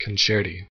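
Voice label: "concerti", American English; pronunciations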